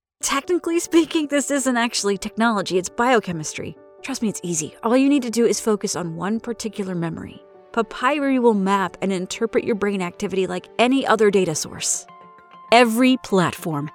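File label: Commercial, voice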